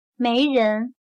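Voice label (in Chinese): "没人", Chinese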